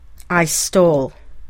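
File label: "I stole", English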